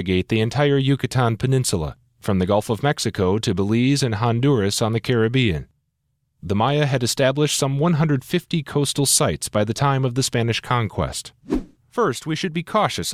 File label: Narration, commercial voiceover